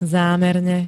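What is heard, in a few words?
"zámerne", Slovak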